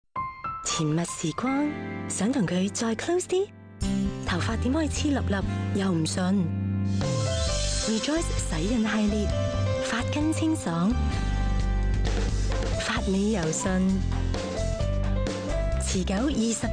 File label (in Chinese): 粵語女聲; Rejoice